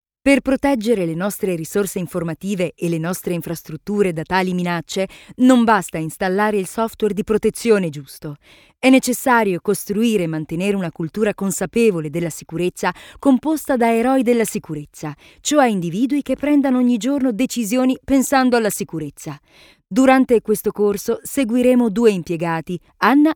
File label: italian training e-learning